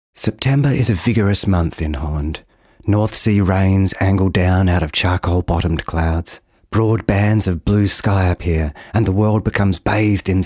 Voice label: AUDIOBOOKS